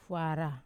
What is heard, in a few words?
"vara", wamesa; hand